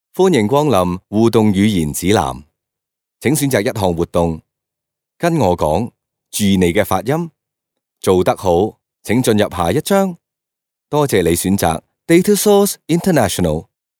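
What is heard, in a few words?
Cantonese male corporate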